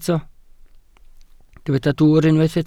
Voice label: "dwwr", Welsh